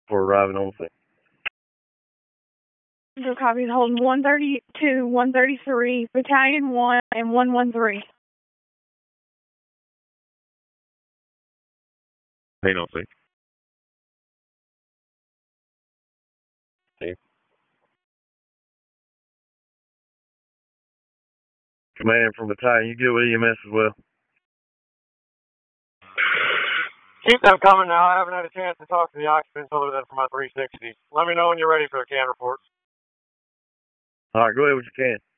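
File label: Public Safety Tactical, p25; wake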